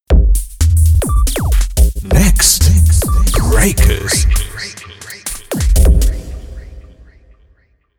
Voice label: en